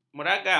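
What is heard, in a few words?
"meragam", Malay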